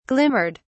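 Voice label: "glimmered", British English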